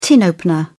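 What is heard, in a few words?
"tin opener", British English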